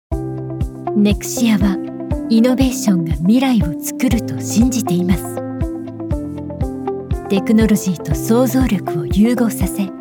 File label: Corporate Narration; corporate e-learning